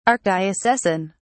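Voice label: "archdiocesan", English, British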